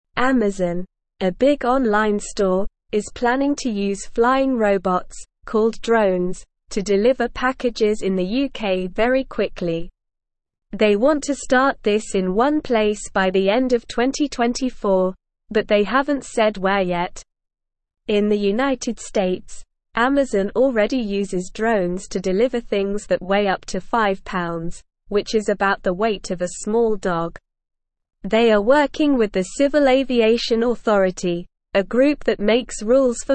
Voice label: English Lower Intermediate SLOW Reading; Amazon to Use Flying Robots for Fast UK Deliveries; English Newsroom